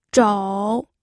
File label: "zhou3", pinyin